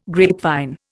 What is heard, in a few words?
"grapevine", Indian